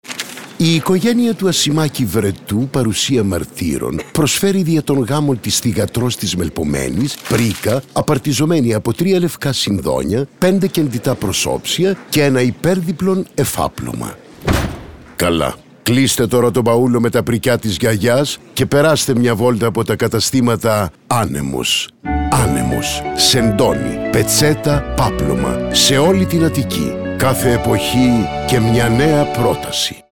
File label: radio spot; anemos